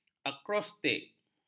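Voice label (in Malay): "akrostik", Malay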